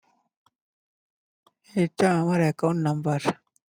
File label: voice message